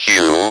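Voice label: "Q", English, male; speak and spell; ALPHABET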